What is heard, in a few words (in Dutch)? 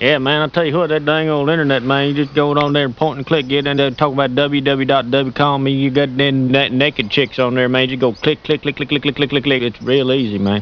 Amerikaan die www uitlegt; Onzin